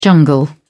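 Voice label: "jungle", British English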